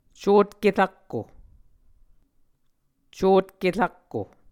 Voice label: "cotke rakko", Muscogee